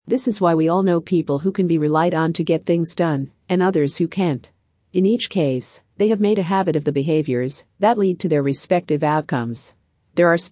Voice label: Productivity Female Audio Snippet